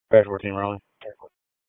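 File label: Raleigh Police Northeast District Dispatch, p25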